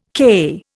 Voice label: "CAI", Indian

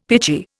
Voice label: "pitchy", Indian; Dictionary

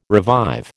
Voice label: "revive", American

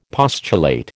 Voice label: "postulate", American

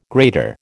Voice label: "grater", American

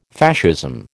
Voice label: "Fascism", American

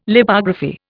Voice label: "Lipography", Indian

